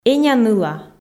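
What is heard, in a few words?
"эня-ныла", Komi, female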